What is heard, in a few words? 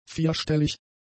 "vierstellig", German; adjectives